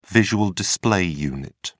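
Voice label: "visual display unit", English, British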